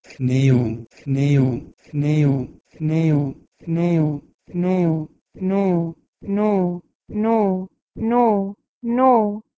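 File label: Proto-Indo-European h1neun to Persian noh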